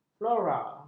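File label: "flora", Malay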